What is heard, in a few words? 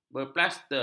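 "berplaster", Malay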